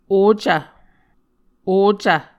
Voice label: "ocv", Muscogee